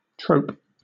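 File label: "trope", British English; pronunciations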